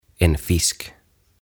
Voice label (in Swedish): "En Fisk", "Kala"